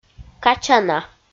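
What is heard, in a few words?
"качана", Komi, female; kpv audio dict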